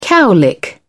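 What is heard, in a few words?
"cowlick", British English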